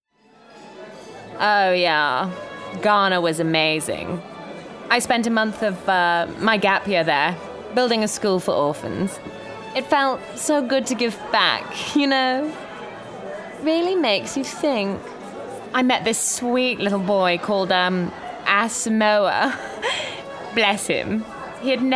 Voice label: Character Posh Sloane RP